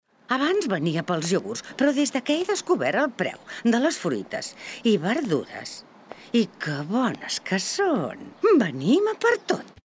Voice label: female catalan market comercial; ideasonora

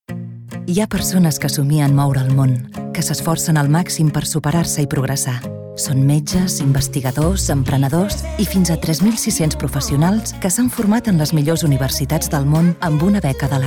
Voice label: female catalan comercial; ideasonora

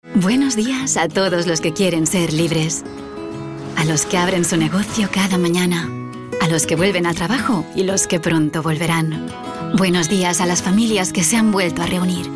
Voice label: female spanish comercial; ideasonora

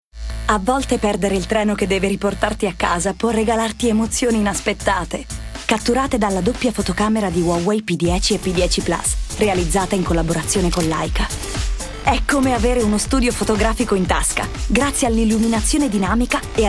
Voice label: female italian comercial; ideasonora

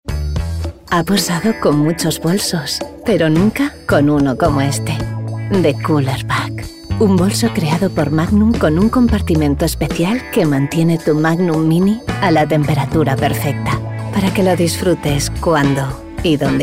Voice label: female spanish ice cream comercial

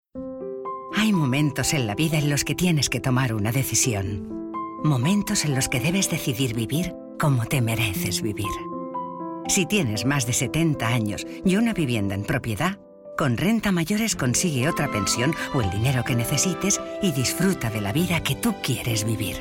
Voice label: female spanish rent comercial; ideasonora

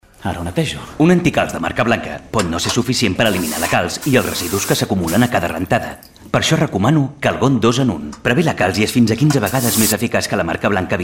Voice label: male catalan wash comercial

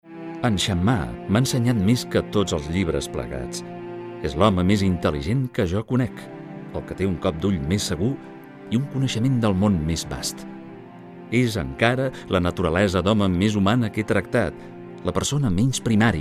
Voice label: male catalan documentary comercial

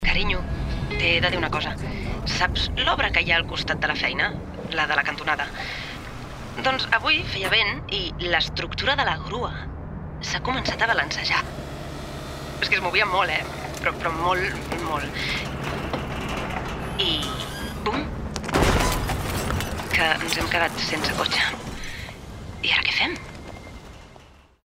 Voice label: female catalan car comercial

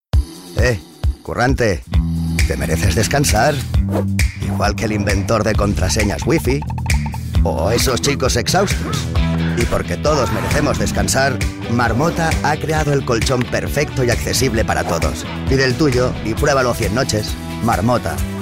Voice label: male spanish sleep comercial; ideasonora

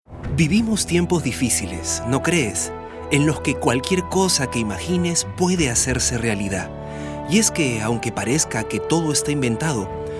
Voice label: male latam peruvian comercial; ideasonora

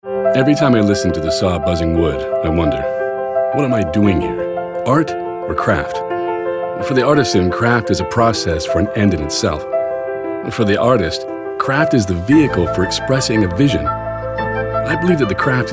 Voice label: male american english narrative comercial; ideasonora

